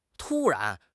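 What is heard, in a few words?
"tu1 ran2", Chinese; HSK3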